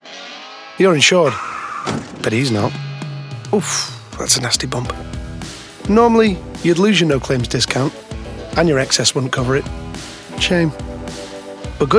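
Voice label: Commercial Reel; Natural Accents: Manchester, Northern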